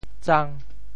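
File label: "tsang5", Teochew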